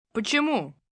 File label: Russian Why, Questions, 1 boy, 11 yrs., Russian - (Why?), human voice, child, kid; speak, talk; Talking